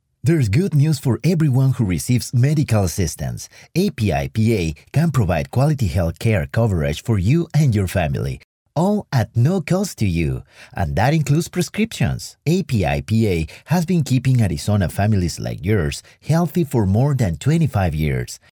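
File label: Spanish Latin America male voice over, English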